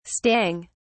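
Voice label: "stang", British English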